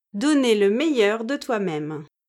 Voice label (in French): "donner le meilleur de", French